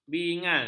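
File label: "bingal", Malay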